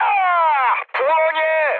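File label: Polish on fire mood high; War Thunder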